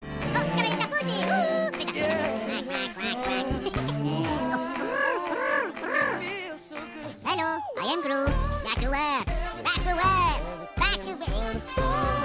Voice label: Funny minion